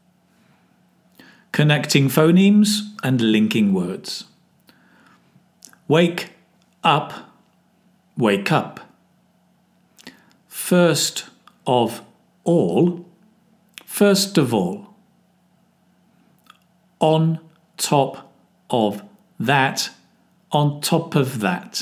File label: ielts pronunciation connected speech